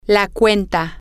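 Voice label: bill, Spanish, female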